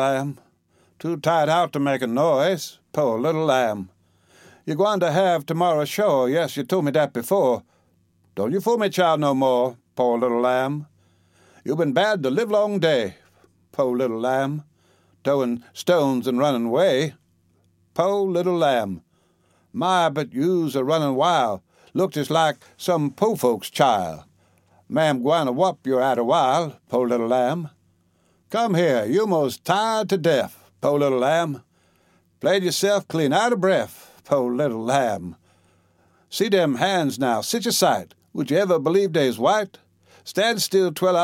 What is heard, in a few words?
lullaby, humour and dialect